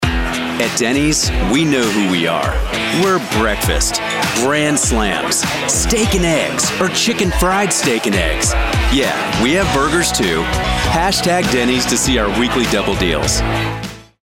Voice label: Commercial Fast Food; Dennys